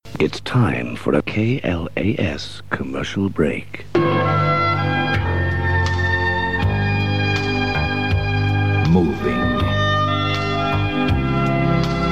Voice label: jingles; KLAS